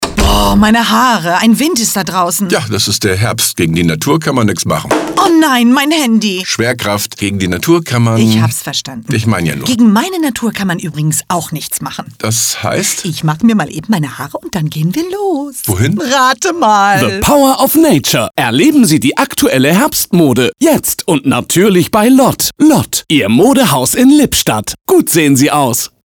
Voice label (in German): werbung Wind